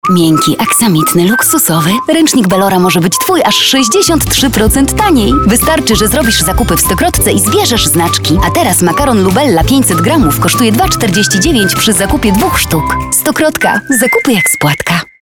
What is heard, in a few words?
Female VO Commercial